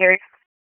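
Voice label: Police Spec Ops Tac, radio; Raleigh; p25; wake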